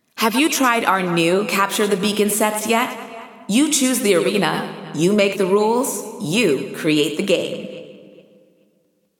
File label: Announcements; CTB Available; GAIA